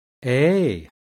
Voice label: rising-falling-contour close-mid front unrounded, IPA sounds